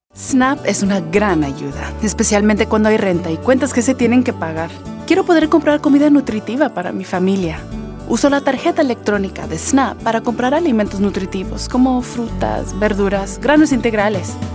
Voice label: Spanish Commercial, Female voices